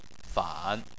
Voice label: "fan3", Chinese

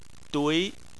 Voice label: "dui2" Chinese

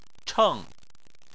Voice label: "cheng4", chinese

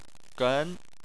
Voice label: "gen2", Chinese

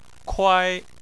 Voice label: "kuai1", Chinese

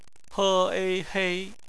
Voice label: "hei", Chinese; phonetics; bpmf

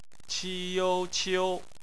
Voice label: "qiu", Chinese; BPMF spelling

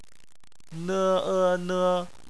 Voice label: "ne", Chinese; phonetics

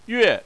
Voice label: "yue4", Chinese; Chinese Surnames

